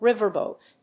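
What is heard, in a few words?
"riverboat", English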